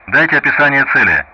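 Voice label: Speech Sound Russian; DCS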